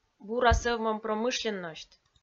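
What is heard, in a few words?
"бура сӧвмӧм промышленносьт", Komi-Zyrian, female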